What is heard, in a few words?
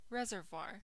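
"reservoir", US English; pronunciations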